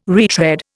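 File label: "retread", Indian English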